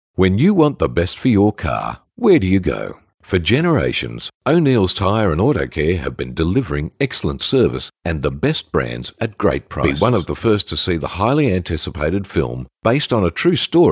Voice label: Soft Sell